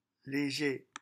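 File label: "leger", French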